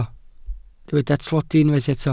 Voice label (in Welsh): "tlodi", Welsh